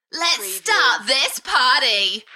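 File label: UK female, Enthusiastic, DJ vocals; Lets start this party; beanstalk audio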